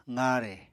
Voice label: "qaare", Apatani